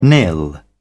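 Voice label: "nil", British English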